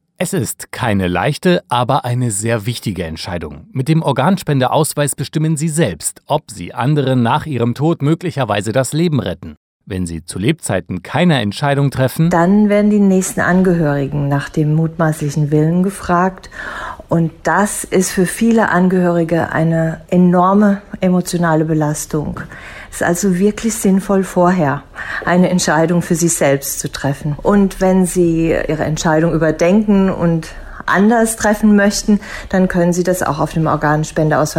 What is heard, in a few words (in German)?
Radiospot; Tag der Organspende 2019